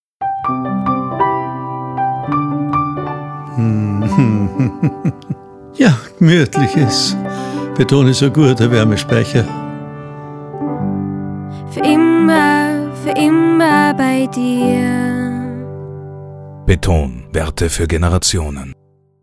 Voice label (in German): Beton Radiospot Wärmespeicher mit Lacher